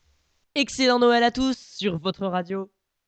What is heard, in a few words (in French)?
excellent noel, jingle